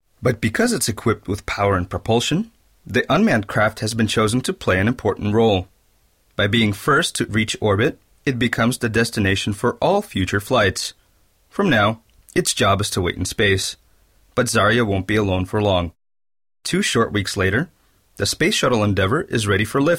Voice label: Engl text